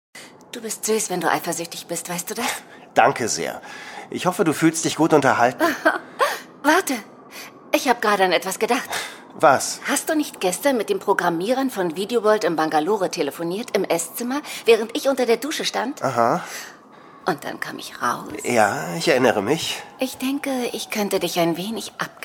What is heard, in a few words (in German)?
Hoerspiel; Der Jesus Deal Folge 1